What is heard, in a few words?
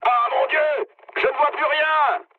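searchlite, mood medium, French; War Thunder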